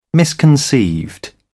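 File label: "misconceived", British English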